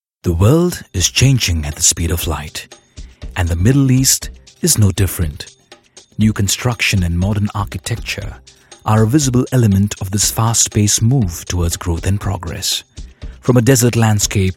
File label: narration eng